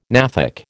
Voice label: "gnathic", American

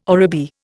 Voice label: "oribi", Indian

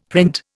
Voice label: "print", Indian; Dictionary

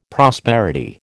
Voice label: "prosperity", American

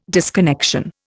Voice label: "disconnection", Indian

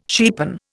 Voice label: "cheapen", Indian

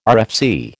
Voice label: "RFC", American

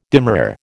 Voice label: "demurrer", American

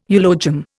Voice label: "eulogium", Indian

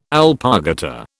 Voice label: "alpargata", British

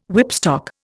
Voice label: "whipstock", Indian

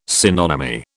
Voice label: "synonymy", British

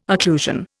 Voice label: "occlusion", Indian

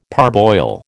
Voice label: "parboil", American

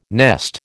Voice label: "nest", American

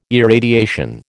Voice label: "irradiation", American

